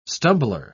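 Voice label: "stumbler", English, uk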